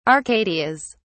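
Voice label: "arcadias", British English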